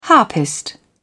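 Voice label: "harpist", British English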